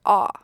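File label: "a", Native, American English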